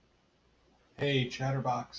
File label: hey chatterbox, en-us